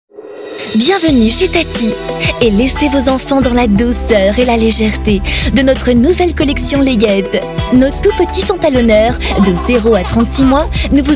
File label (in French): voix off